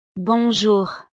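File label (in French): "bonjour", French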